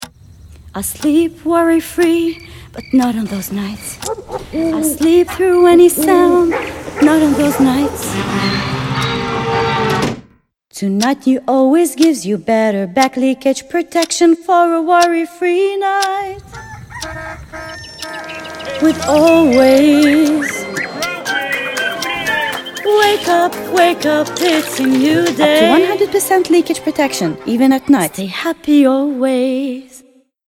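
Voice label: English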